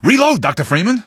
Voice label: reload, male; npc; Garry's Mod; hl2